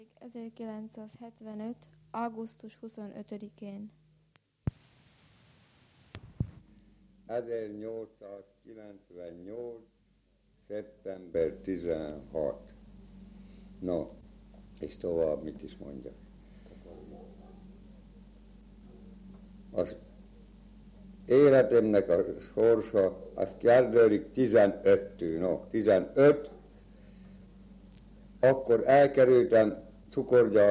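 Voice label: dialect collection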